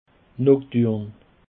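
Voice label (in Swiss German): "nocturn", Alsatian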